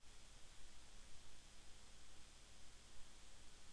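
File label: "low", spoken word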